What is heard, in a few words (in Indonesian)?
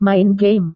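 "main game", Indonesian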